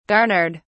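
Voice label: "garnered", British English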